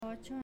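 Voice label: "Ocho", Spanish, female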